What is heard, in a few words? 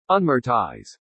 "unmortise", English